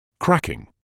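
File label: "cracking", British English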